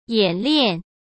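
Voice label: "演练", Mandarin Chinese; hsk; chinese flashcards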